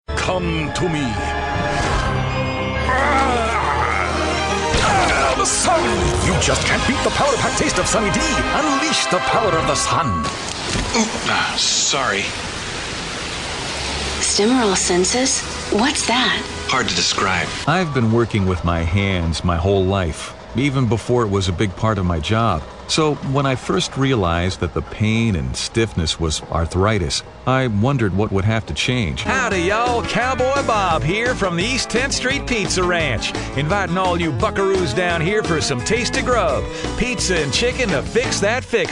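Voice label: english us male commercial; voice demos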